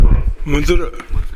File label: "muzuru", minamiyamagata